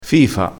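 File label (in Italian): "fifa", vocabolario dialettale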